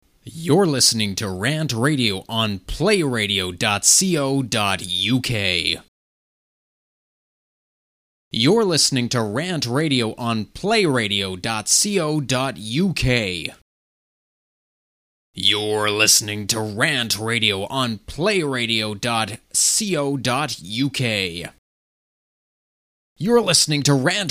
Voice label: voiceover